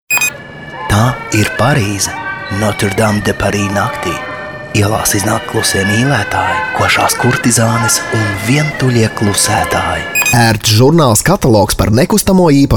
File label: latvian m commercial, Latvian voice; voice demos